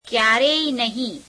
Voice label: gujarati never; ક્યારેય નહિં [ke'yeāreey neheim]; Adverbs

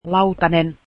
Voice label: plate, finnish

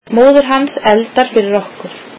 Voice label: icelandic her mother cooks for us

